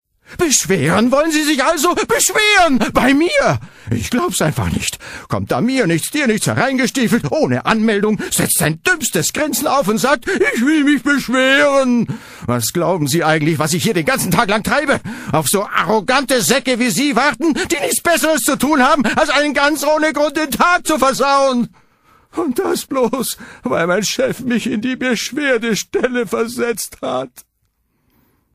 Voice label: Schauspiel Aufgeregt

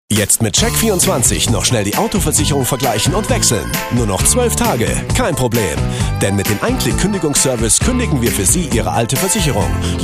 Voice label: Freundlich; Check24

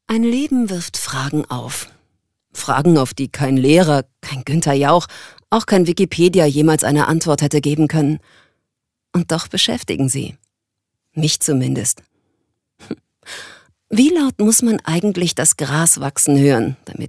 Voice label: Schauspiel Sanft

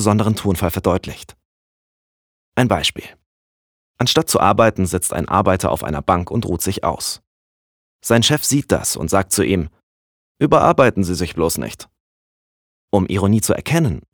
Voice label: Sarkasmus, Sachlich

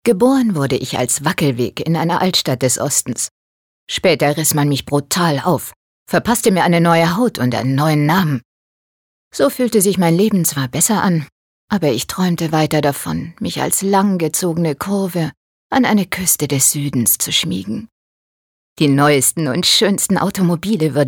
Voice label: Schauspiel Neutral

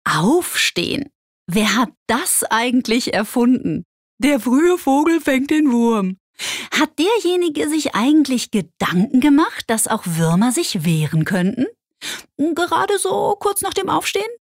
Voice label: Dynamisch

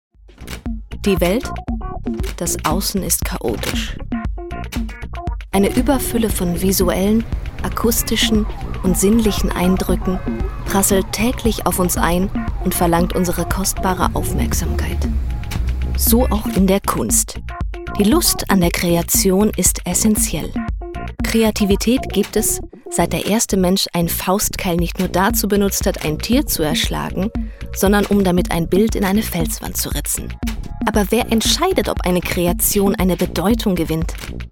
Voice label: Freundlich; Fremdtake

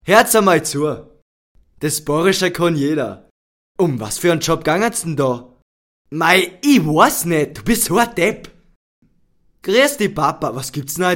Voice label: Dialekt Bayerisch

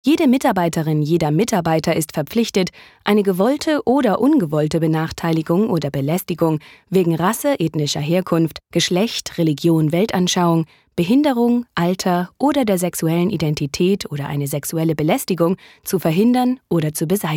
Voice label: Kommentar Neutral

